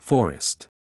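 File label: "forest", English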